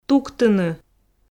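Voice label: "туктыны", Komi, female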